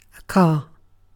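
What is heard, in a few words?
"car", British English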